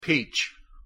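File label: "peach", English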